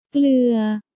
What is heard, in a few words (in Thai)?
"เกลือ", Thai